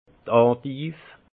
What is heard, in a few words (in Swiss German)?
"endiv", alsace; vin; endive